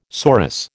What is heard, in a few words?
"sorus", American